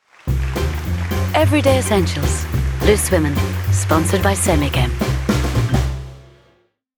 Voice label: Ident; STV